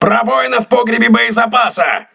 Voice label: voice message ammunition storage damaged, crew ships russian